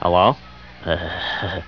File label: hello laughing